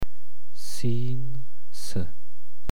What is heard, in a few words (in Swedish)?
"sin", persiska